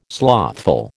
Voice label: "slothful", American

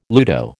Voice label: "ludo", American

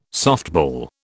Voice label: "softball", British

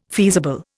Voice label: "feasible", Indian

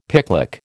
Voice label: "picklock", American

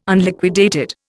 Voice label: "Unliquidated", Indian

